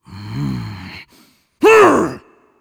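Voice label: cinematic voice, Polish; lineage2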